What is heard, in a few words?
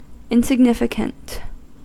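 "insignificant", US English; pronunciations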